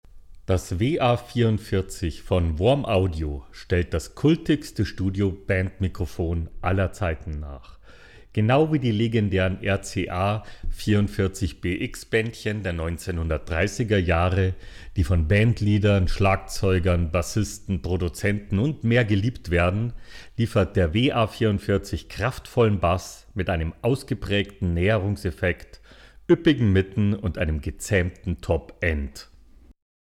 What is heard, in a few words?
WA44 Voice Bettermaker